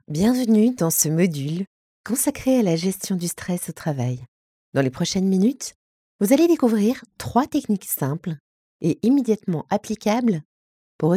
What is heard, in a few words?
E-learning Well-being FR